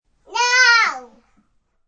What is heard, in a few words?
Tddler Yells No, A toddler yells No.; Talking; Voice